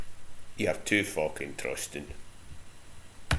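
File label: "trustin", slang; Ah huvnae seen ye aboot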